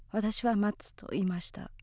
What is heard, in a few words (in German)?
"matsu" tanne, japanese accent